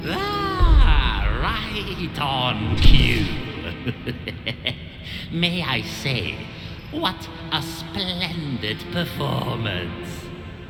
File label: voiceover; We Were Here Forever